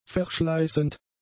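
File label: "verschleißend", German; adjectives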